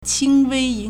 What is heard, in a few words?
"qing1 wei1", Chinese